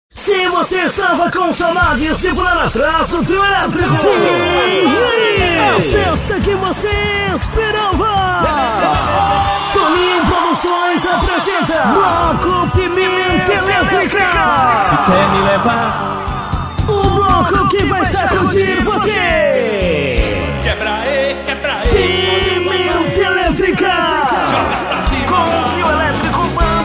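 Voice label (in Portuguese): locutor chamada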